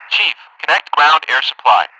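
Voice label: "request to connect ground air supply", English speech; DCS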